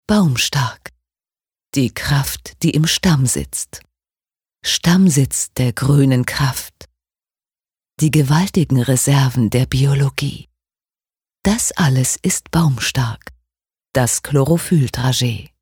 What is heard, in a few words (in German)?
Werbung Sinnlich